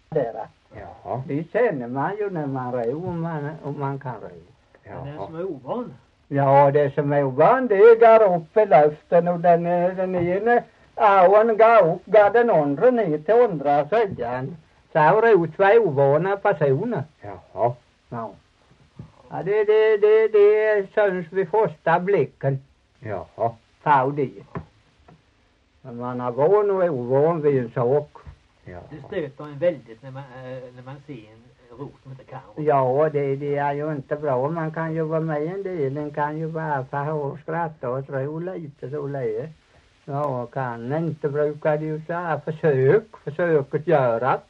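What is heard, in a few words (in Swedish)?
Torhamn Blekinge, dialekter; Folkminnen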